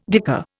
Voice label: "dicker", Indian

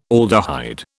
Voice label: "aldehyde", British

